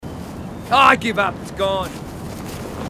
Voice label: marine aussie; h2dialogue